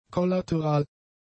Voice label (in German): "kollateral", German; adjectives